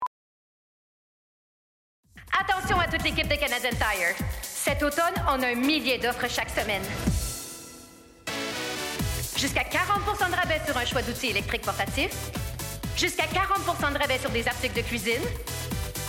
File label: FR; Fall Deals; Bootcamp; Broadcast